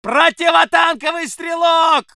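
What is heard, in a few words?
voice soviet infantry; Red Orchestra 41-45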